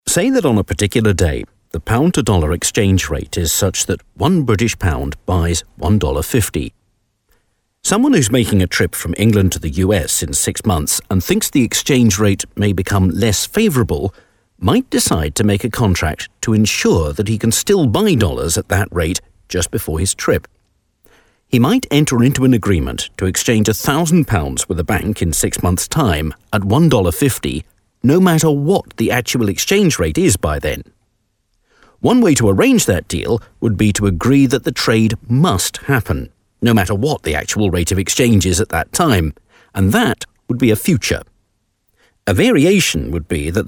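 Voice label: Read, voiceover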